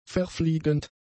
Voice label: "verfliegend", German; adjectives